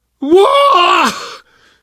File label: fall short, survivor voice; source engine; left 4 dead 2; namvet